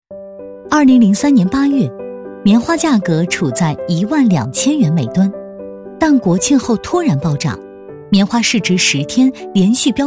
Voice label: 介绍类期货讲解

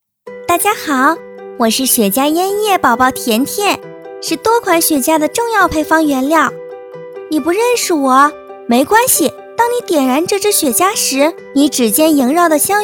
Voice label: 女童

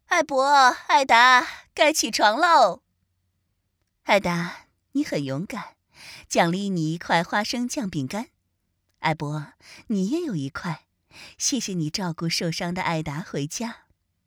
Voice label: 成女角色

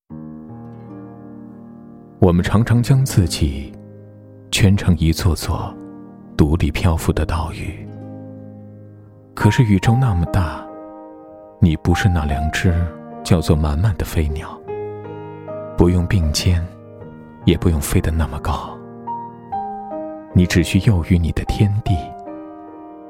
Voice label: 男声自然讲述

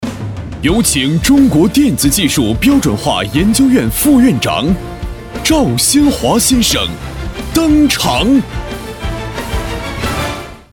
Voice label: 颁奖片头登场

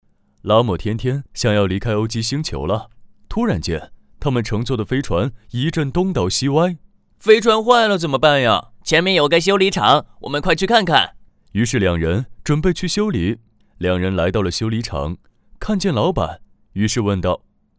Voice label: 卡通小学课程多角色

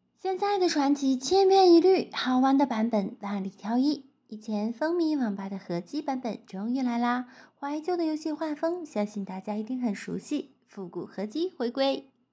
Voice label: 怀旧

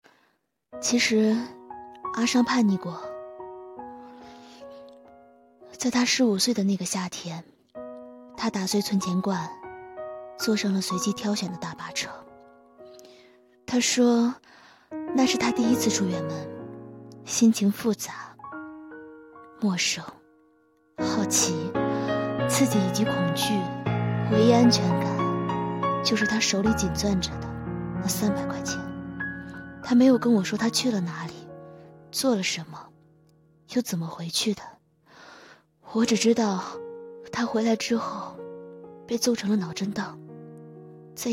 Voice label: 诉说

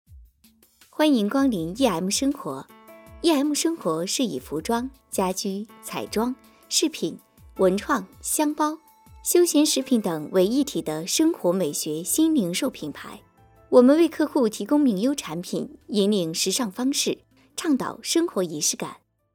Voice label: 知性广告

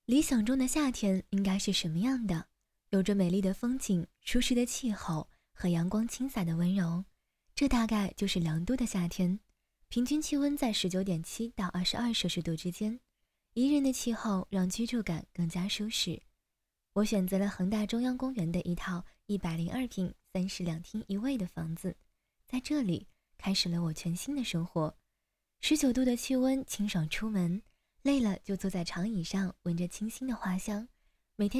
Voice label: 地产宣传温柔

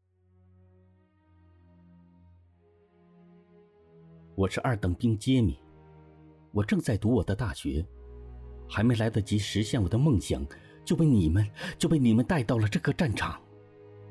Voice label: 经典影视内心独白 人物独白; 灵魂拒葬

